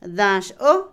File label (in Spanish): frazada, cobija, Otomí, mujer; Casa; INALI